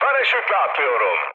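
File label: Turkish bailout, mood med, speech; War Thunder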